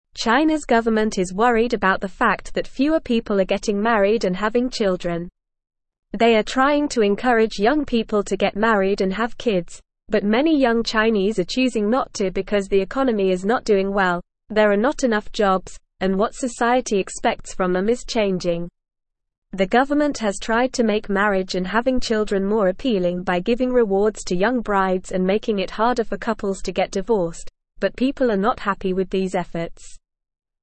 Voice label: English Upper Intermediate NORMAL Reading; Chinas Declining Marriage and Birth Rates Concern; English Newsroom